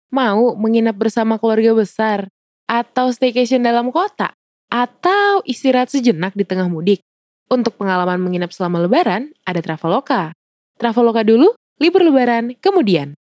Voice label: INDO CASUAL